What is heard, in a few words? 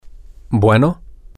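hello Mexico, male, spanish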